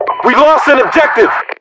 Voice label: lost obj, US English

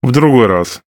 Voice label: RU Speech Quick Commands No Way; World Of Warships; USSR Ovechkin

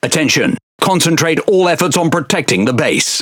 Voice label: Speech Quick Commands Ally Base, EN GB; World Of Warships

